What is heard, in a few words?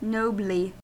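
"nobly", US English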